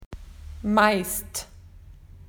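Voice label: "most", Australian English; PictureDictionary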